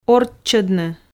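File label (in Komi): "орччӧдны", Komi, female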